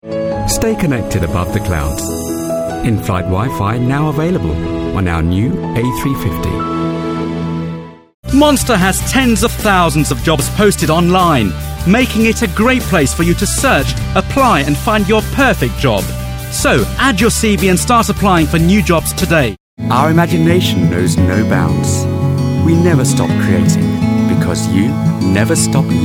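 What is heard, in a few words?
British, TV commercial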